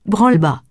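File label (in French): "branle-bas" French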